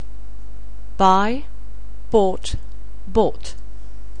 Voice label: "buy", anglais

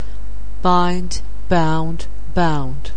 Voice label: "bind", anglais

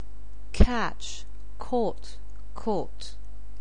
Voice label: "catch", anglais

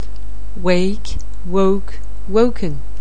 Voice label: "wake", anglais

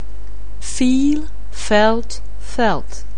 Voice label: "feel", anglais

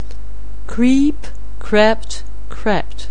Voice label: "creep", anglais

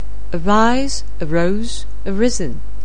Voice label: "arise", anglais

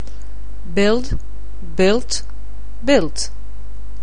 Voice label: "build", anglais

